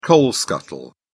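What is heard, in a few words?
"coal scuttle", English, British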